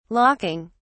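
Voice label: "locking", English, British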